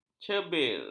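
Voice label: "cebir", Malay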